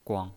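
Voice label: "guang", Mandarin Chinese, neutral tone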